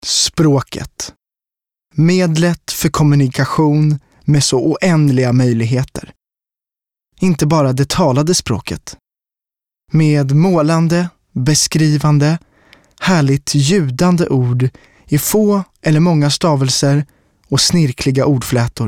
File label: Swedish Neutral Documentary Language